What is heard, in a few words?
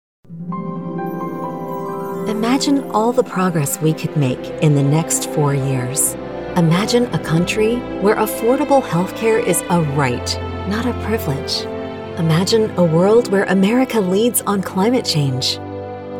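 Democrat Voiceover, Female; Political DEMOCRAT Voices English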